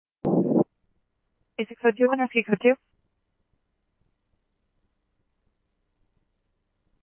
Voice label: Phoenix Fire, scanner; PHXFD K7; RWC; H-Scottsdale

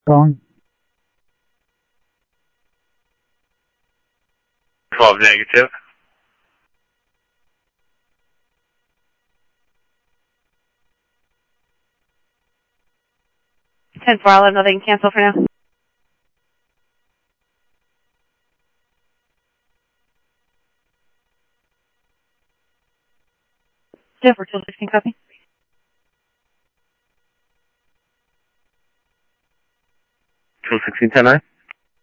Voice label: scanner audio trunk; Thompson Peak; MVD Enforce; ArizonaDepofTran

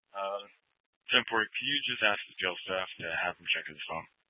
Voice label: Scottsdale PD Sth Dispatch, scanner

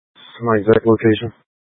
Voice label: Mutual Aid-Intersystem Interop B, scanner

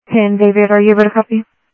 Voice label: Sheriff Central, scanner audio trunk

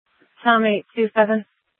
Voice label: Sheriff, scanner P25; Shrf Lakes

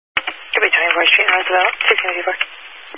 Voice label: Highway Patrol, Phoenix Metro East Area, scanner

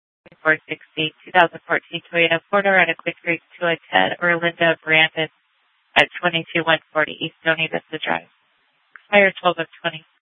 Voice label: Sheriff, Sheriff Southeast, scanner; Maricopa County (P25); Thompson Peak

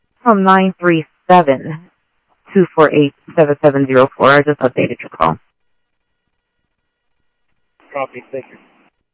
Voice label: Info Sheriff Common, scanner audio trunk

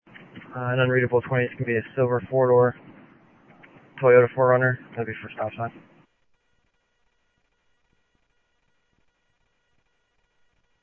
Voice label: Sheriff, scanner audio

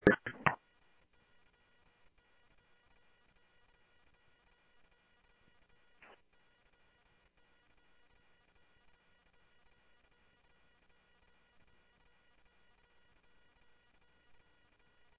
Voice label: Police, scanner audio

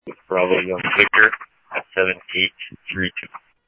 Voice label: Scottsdale Police, scanner audio

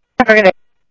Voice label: scanner audio trunk

